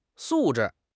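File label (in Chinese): "素质", Chinese; HSK6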